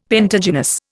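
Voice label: "pentagynous", Indian English; Dictionary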